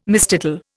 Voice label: "mistitle", Indian